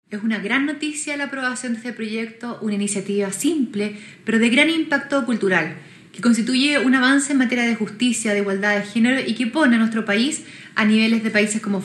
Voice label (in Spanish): cuña